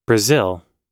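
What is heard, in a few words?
"b", American English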